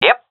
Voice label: affirmation, seal fem; vo; Counter Strike Model Pack; CS:GO